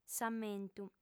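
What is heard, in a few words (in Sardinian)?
"s'ammentu", female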